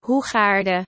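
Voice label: "Hoegaarden", Dutch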